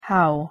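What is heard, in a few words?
pronunciation "how", English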